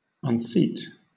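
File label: "unseat", British English; pronunciations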